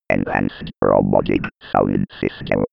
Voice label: 90s computer voice